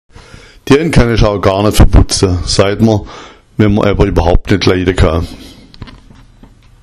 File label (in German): verbutza, schwaebisch; Dean ka schao gar net verbutza sagt man wenn man jemand überhaupt nicht leiden kann.; schwäbisch